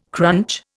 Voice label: "crunch", Indian